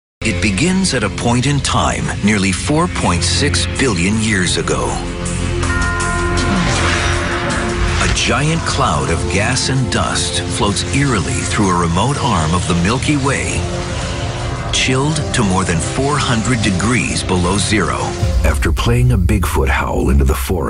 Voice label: narration